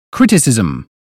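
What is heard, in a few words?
uk phonetics word "criticism", British; 英语音标发音（剑桥版）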